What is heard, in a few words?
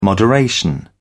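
"moderation", British English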